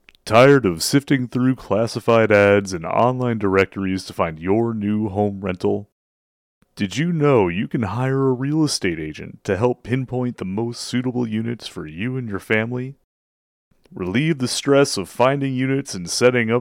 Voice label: Realty Radio Commercial